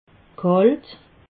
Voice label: "kalt", alsace

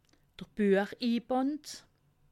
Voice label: "buechinband", alsace

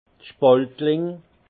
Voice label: "spaltkling", alsace; vin; rabot pour douves

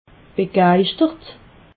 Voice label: "begeischtert", alsace

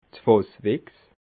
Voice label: "fasswichs", alsace

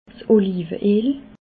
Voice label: "olive eel", alsace

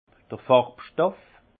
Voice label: "farbstoff", alsace; vin; pigments colorants

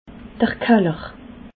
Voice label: "kaller", alsace

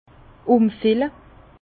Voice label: "umfille", Bas Rhin; vin; transvaser

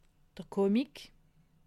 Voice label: "comic", alsace

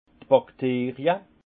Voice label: "bakterie", alsace; vin; bactéries

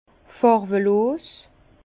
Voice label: "farwelos", Bas Rhin; incolore; vin

